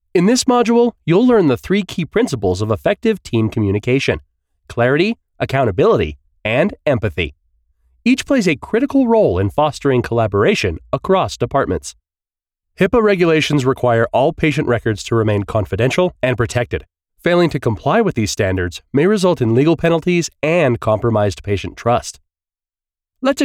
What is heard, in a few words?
E-learning, Voice Over